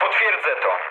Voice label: player killed enemy mood low, speech pol; War Thunder